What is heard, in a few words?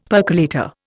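"percolator", Indian English; Dictionary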